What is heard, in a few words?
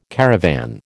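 "caravan", American English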